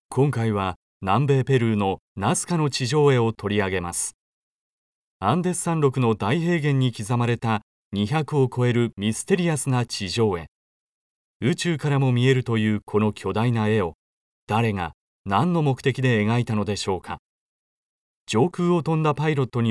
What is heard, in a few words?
male